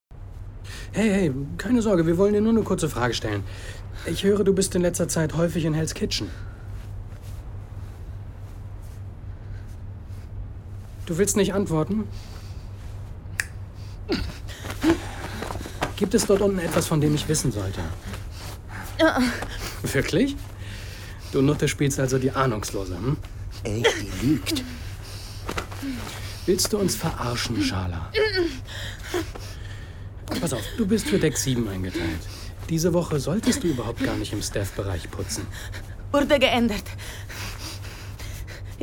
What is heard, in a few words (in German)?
audiodrama